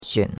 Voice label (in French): "xuan3", chinois; Cours-de-Chinois